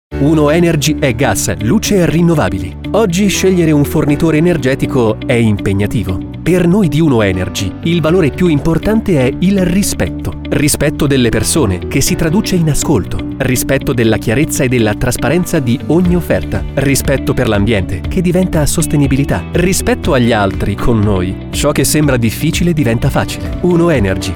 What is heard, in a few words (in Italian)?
spot radio